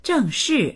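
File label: "zheng4shi4", Mandarin Chinese; 正式; 正式な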